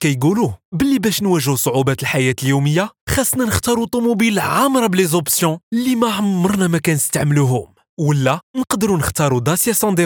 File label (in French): spot radio